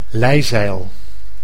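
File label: "lijzeil", Dutch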